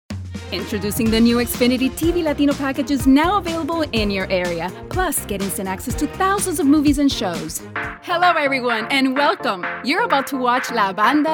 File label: English